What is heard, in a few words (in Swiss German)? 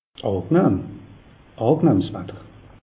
"angenahm", alsace; meteo